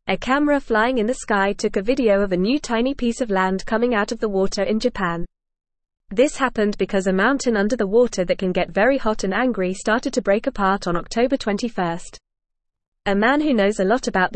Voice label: English Newsroom Beginner FAST Reading; New Land Appears in Japan After Underwater Volcano Erupts